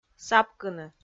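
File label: "сапкыны", Komi, female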